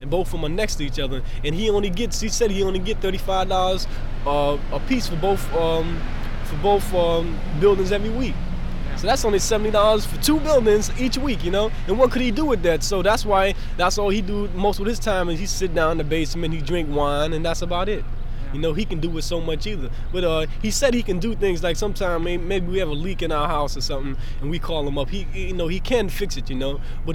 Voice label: New York interviews